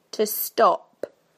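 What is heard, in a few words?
"to stop", British English; PictureDictionary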